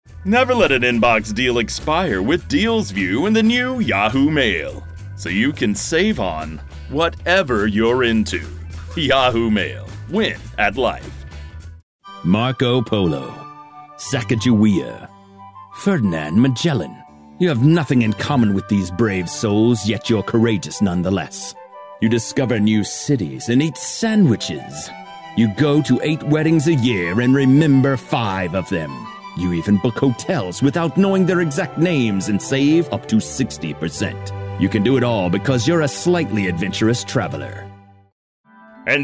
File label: Sarcasm